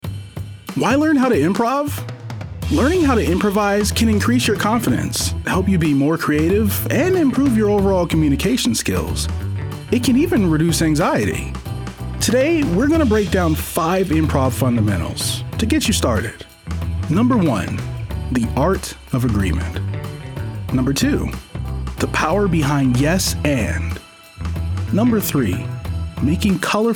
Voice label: Narration, African- American Male Professional Voiceover; Dungeons and Dragons